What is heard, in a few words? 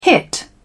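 "hit", British English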